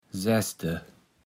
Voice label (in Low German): "saesde", Platt